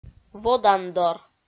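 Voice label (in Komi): "водандор", Komi, female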